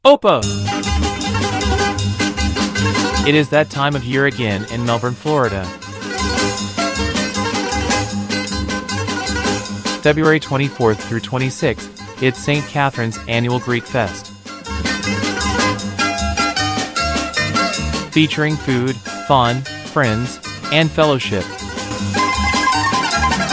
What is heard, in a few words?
festival advertising spot; st cathrerines festival